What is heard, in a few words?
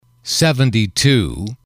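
seventy two, male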